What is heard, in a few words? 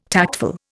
"tactful", Indian